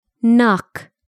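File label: "knock", English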